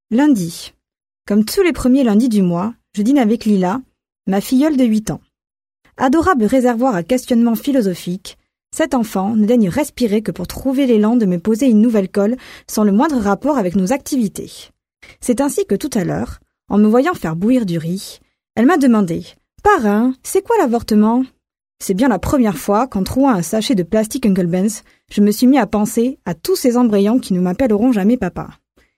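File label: French, female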